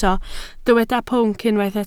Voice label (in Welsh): "pwnc", Welsh